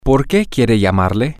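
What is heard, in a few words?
Spanish, male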